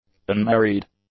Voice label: "unmarried", English; adjectives